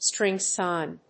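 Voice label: "string sign", English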